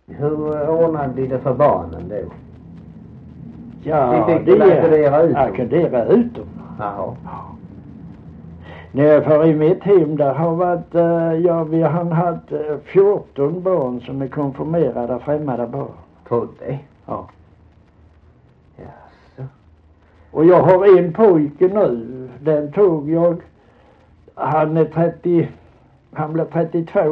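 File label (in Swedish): Holm Halland, dialekter; Folkminnen; Svenska sagor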